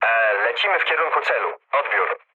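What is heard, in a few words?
Polish chat; War Thunder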